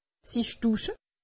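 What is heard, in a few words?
"dusche", Alsatian; medecine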